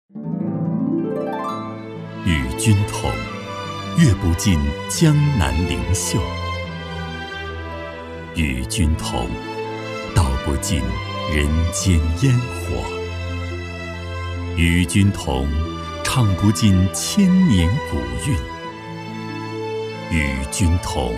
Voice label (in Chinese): 男, 宣传片, 国风舒缓、大气; 透亮故事感; 高端大气; 缙云